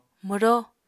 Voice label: "mwró", Apatani